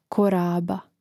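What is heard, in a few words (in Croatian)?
"koraba", Hrvatski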